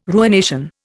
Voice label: "ruination", Indian